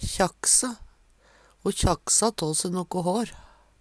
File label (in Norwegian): kjakkse, Numedalsmål; Verb